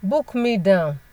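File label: "book me down", Nigerian Pidgin, female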